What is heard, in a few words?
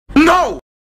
angry no sound